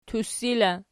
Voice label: "tussi", Archi

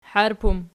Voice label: "harp", archi

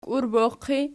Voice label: "kiurbos pot", Archi

